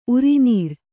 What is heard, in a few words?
"ಉರಿನೀರ್", Kannada; urinīr; noun